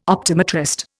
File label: "optometrist", Indian